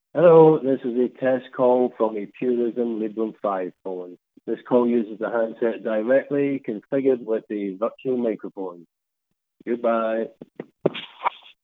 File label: Test Message, Handest direct with virtual mic; Librem 5